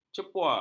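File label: "cepua", Malay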